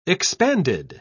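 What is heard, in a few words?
"expanded", British English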